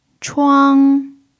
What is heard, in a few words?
"chuang1", pinyin